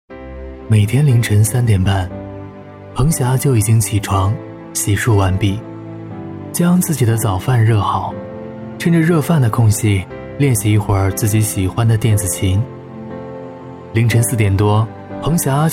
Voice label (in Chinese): 人物纪录片 温柔舒缓